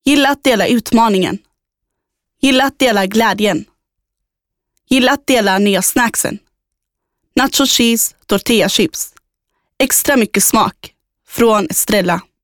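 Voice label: Swedish female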